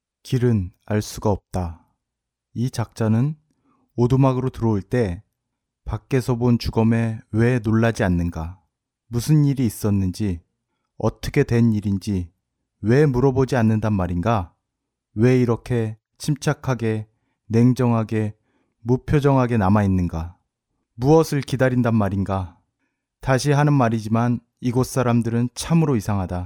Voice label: male korean voice over narrative